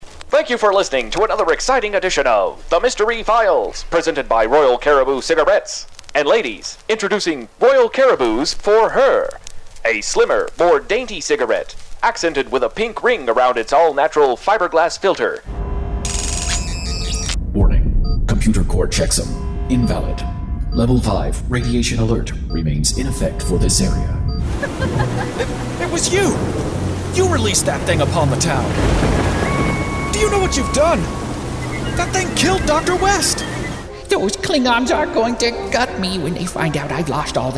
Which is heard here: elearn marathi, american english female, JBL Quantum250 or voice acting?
voice acting